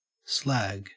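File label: "slag" English, Australian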